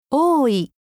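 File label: "ooi", Japanese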